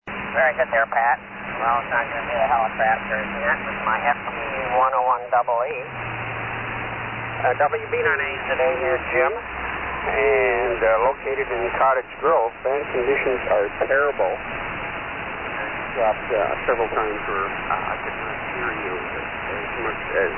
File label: Yaesu FT-101EE & FL-2100B Amp Turner Mic; Cottoge Grove, Wi.